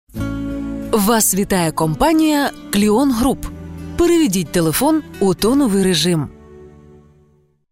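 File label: interactive voice response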